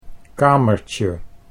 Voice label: "kamertje", Dutch